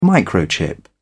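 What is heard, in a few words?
"microchip", British English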